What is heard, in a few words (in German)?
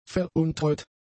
"veruntreut", German; adjectives